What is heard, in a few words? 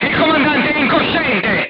voice message commander contused, crew tanks italian